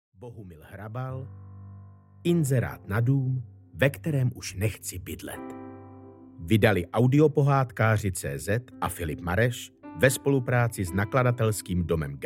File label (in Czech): Audiokniha; Inzerat na dum ve kterem uz nechci bydlet; Bohumil Hrabal